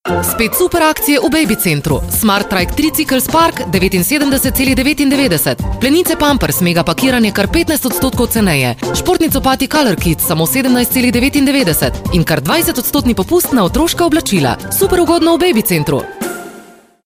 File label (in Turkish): Slovence Kadin, slovence seslendirme; kadin sesler